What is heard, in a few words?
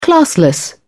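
"classless", English, uk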